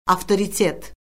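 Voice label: "avtoritet", Azerbaijani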